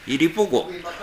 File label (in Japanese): "irepoko", minami yamagata